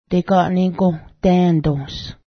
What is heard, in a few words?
alligator, Cayuga; deganigǫhdę́:dǫhs